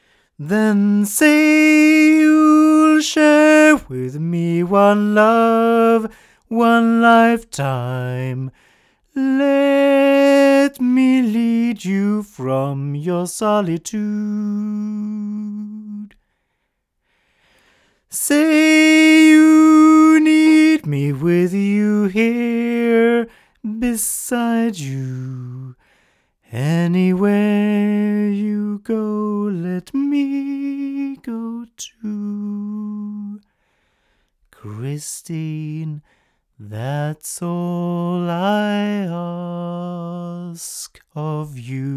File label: ADK A51S Type4 male